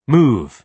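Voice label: "move", British English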